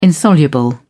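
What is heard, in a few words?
"insoluble", British English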